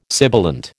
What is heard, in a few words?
"sibilant", American